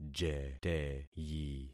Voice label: "jeteyi", concat syllables; Affixing experiment

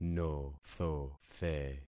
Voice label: "nothothe", concat syllables; Affixing experiment

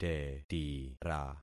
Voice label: "tetira", concat syllables; Affixing experiment

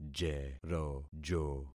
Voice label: "jerojo", concat syllables; Affixing experiment

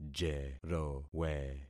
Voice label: "jerowe", concat syllables; Affixing experiment

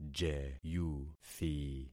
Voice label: "jeyuthi", concat syllables; Affixing experiment

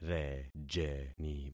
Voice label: "rejeni", concat syllables; Affixing experiment

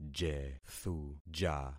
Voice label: "jethuja", concat syllables; Affixing experiment

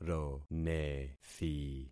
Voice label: "ronethi", concat syllables; Affixing experiment

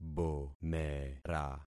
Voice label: "bomera", concat syllables; Affixing experiment

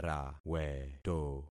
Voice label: "raweto", concat syllables; Affixing experiment

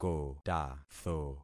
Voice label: "kotatho", concat syllables; Affixing experiment

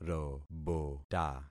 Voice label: "robota", concat syllables; Affixing experiment

